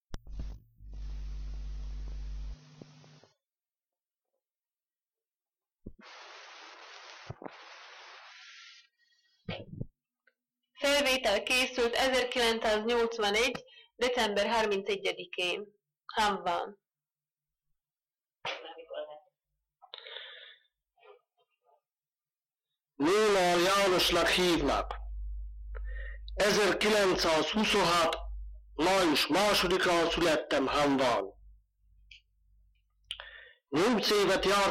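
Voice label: dialect collection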